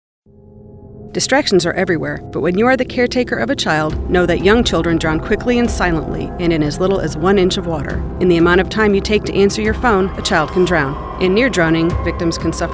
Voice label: public service announcements; drowning; DCFS